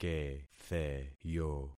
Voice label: "ketheyo", concat syllables; Affixing experiment

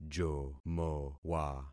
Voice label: "jomowa", concat syllables; Affixing experiment

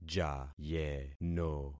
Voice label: "jayeno", concat syllables; Affixing experiment

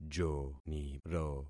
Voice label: "joniro", concat syllables; Affixing experiment

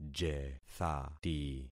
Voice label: "jethati", concat syllables; Affixing experiment

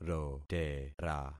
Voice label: "rotera", concat syllables; Affixing experiment

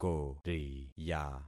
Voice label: "koriya", concat syllables; Affixing experiment

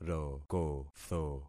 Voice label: "rokotho", concat syllables; Affixing experiment

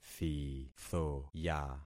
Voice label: "thithoya", concat syllables; Affixing experiment

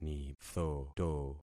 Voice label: "nithoto", concat syllables; Affixing experiment

